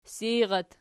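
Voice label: "seirat", Archi, nominative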